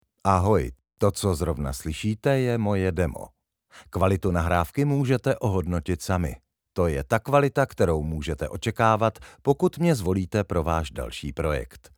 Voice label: czech male